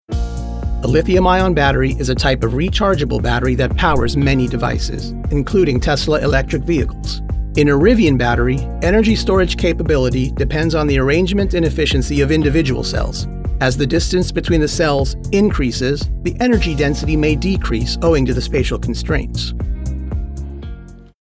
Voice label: eLearning technical; male voice actor